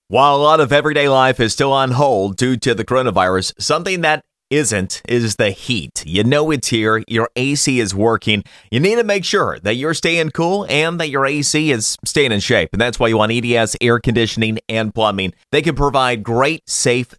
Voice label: Radio Spot